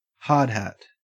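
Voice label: "hardhat", Australian English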